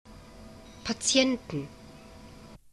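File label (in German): "patienten", German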